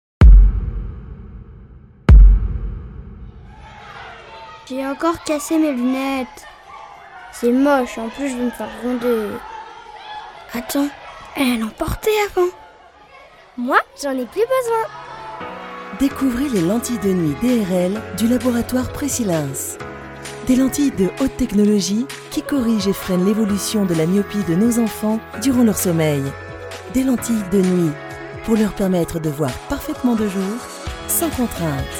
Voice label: Pub web naturel souriant rassurant; PRECILENS

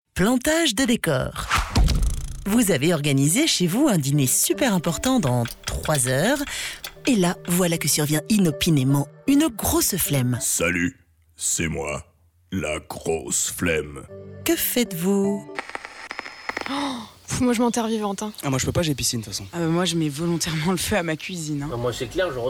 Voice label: Pub Radio Locale Humoristique spot; Pinasse Traiteur

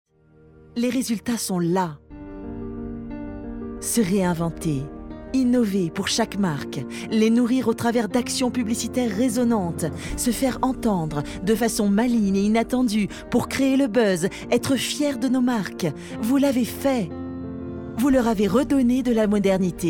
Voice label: Admiratif; EDGEWELL

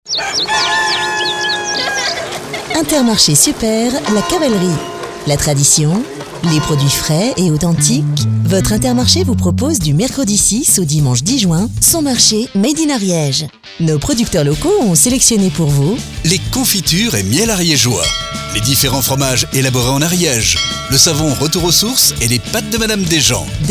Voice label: Pub RADIO; FUN RADIO INTERMARCHE

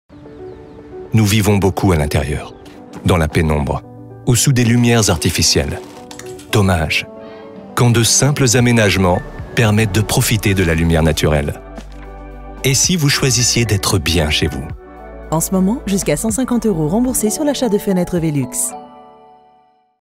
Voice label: spot TV, pastille de fin de pub; VELUX National

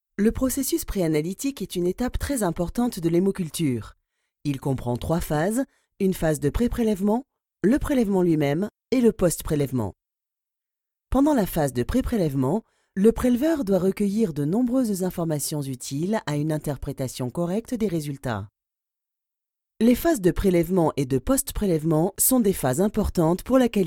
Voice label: ELEARNING Explicatif droit; BACTERIO ELEARNING 2

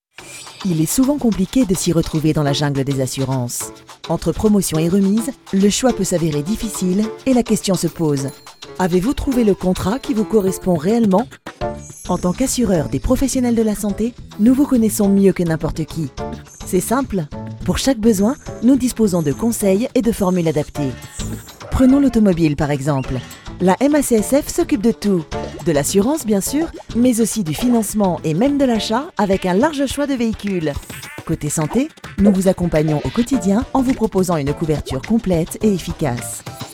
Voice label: Pub internet Souriant naturel; MACSF

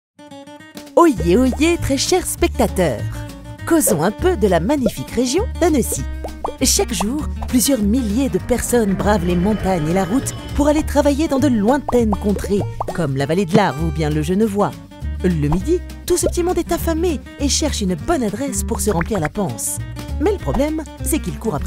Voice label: Humoristique décalé; POPOTTE MINUTE

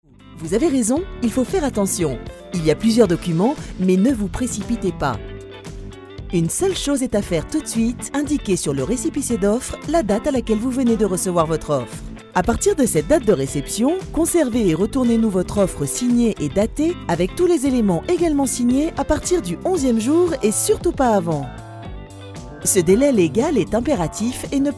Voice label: TUTO Naturel souriant explicatif; CREDIT FONCIER

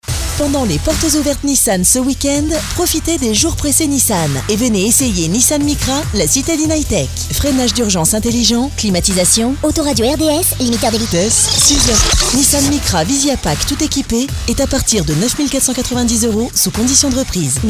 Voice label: Pub Radio Jouée; NISSAN MICRA

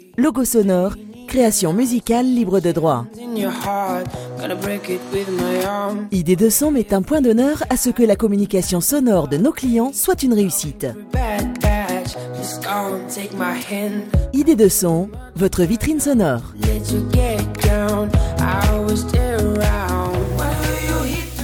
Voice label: Message d'accueil; ID2SON

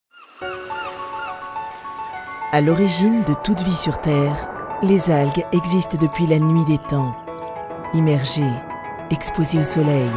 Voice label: FR Chaleureux explicatif droit; AGRIMER

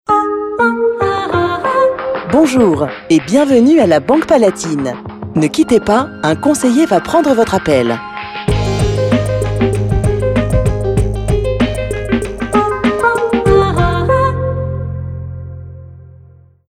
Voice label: Message Prédécroché; BANQUE PALATINE

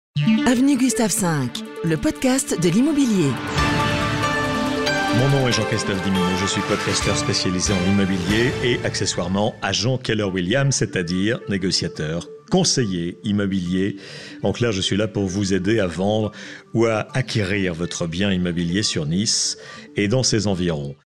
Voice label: billboard, droit rassurant; AVENUE GUSTAVE V

